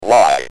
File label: "Y", UK Speech